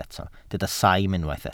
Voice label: "saim", Welsh